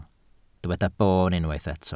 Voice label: "boon", Welsh